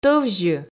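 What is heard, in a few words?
"тӧвзьы", Komi, female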